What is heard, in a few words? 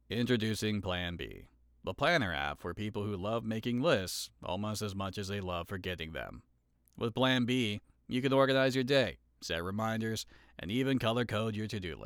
Commercial Wry